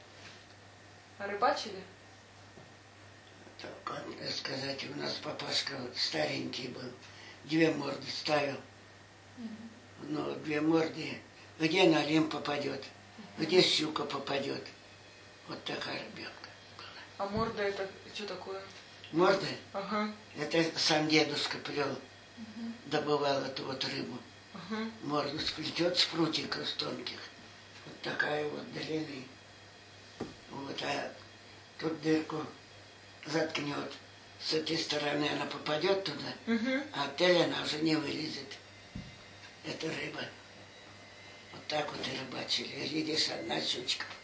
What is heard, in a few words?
middle ob dialect; морда